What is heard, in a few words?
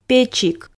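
"печик", Komi, female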